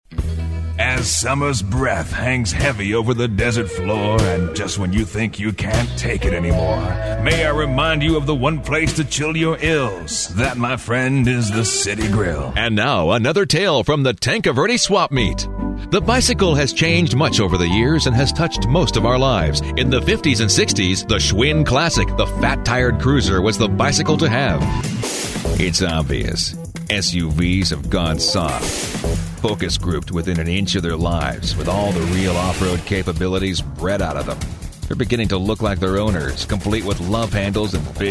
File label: Radio scoped voice male